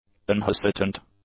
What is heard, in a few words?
"unhesitant", english; adjectives